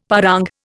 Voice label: "parang", Indian; Dictionary

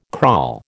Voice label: "crawl", American

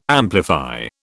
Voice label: "amplify", British

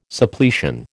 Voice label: "Suppletion", American

